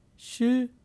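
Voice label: "shi", syllable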